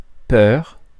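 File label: "peur", French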